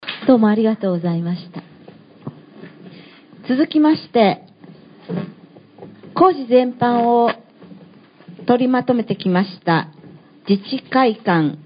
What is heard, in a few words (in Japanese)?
司会; rakuseishiki